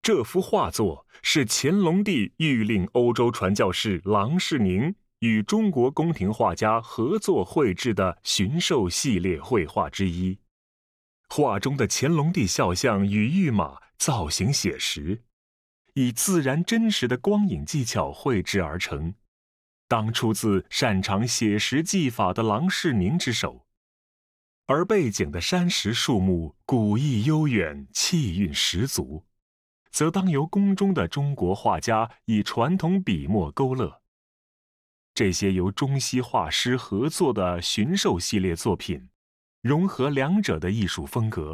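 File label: Mandarin, audio guide; 弘历挟矢图; 郎世宁（1688–1766 年）; 清乾隆七年（1742年）; Heavenly Horse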